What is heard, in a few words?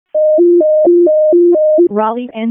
EMS ALERT; wake; p25